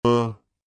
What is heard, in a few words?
Phoneme Male; Commonscript; Lynguistykon